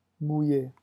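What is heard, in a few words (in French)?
"mouiller", French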